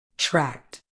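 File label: "tract", British English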